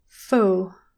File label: "full", english pronunciation